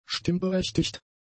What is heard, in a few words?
"stimmberechtigt", German; adjectives